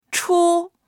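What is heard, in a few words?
"chu1", Chinese; 出; 出る、出す; dictionary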